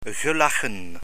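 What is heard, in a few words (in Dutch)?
"gelachen", Dutch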